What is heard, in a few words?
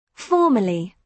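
"formally", British English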